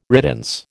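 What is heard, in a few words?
"riddance", American English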